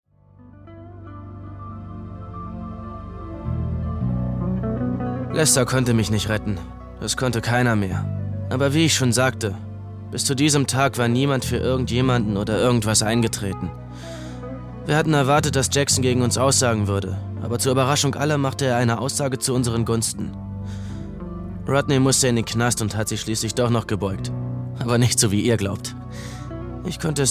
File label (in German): synchron